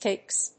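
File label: "TICKS", English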